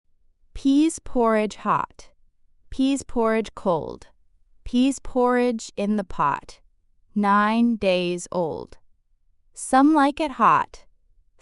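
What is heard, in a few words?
female US, classic rhymes; Pease Porridge Hot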